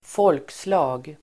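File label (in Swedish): "folkslag", Swedish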